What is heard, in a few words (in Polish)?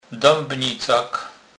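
"debnicak", Kurpie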